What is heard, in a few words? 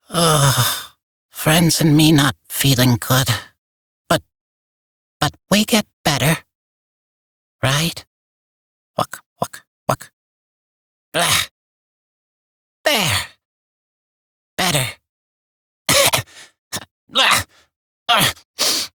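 Barks Bird, English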